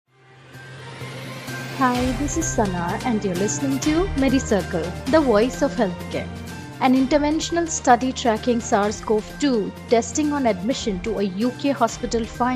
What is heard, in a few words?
english news